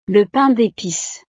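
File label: "le pain depice", French; navidad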